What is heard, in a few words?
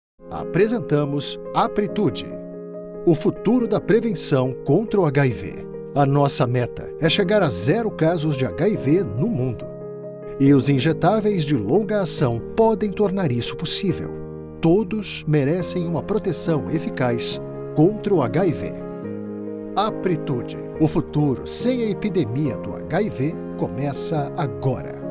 portuguese brazilian medical narration